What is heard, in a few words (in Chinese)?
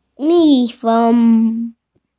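"mi4feng1", Mandarin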